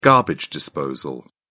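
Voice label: "garbage disposal", English, British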